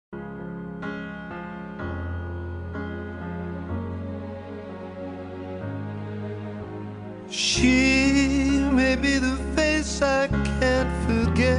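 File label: female; cunhado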